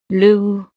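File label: "le houx", French; navidad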